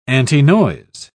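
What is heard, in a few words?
"antinoise", British English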